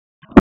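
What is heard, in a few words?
Police Southwest District Dispatch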